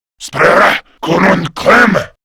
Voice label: Attack Grineer Male; Warframe; Battle Barks

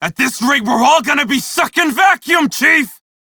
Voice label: crew ship low, male; Dialog; en; Warframe; Railjack Crew; Red Veil

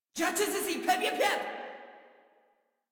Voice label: Player Spotted Female, Battle Barks; Warframe; Modular Corpus Female

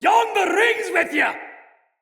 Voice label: Turned Tides Afar, Soldier Male; Dendrit

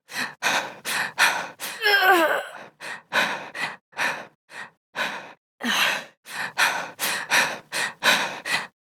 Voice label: Operator Voice, en; Warframe; Entrati Lab Quest; Full Quest

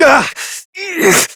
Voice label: Pain Adult Operator, en; Warframe; Dialog Barks

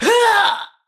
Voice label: Death Ranged, Battle Barks; Tau; Tau Dax

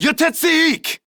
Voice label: Cover Me, Corpus Male; Warframe; Modular Corpus; Battle Barks; en

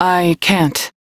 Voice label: Crew Reject, Female; Dialog; en; Warframe; Railjack Crew; New Loka

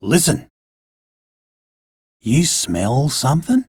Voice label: Idle, en, Dialog Barks Battle; Warframe; Chipper

